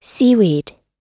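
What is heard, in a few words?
"shrimp", us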